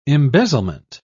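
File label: "embezzlement", British English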